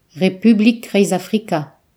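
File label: "central african republic", Breton; gcompris; geography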